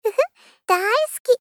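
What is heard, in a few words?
Gentle, Love, "Huhu Daisuki", Japanese female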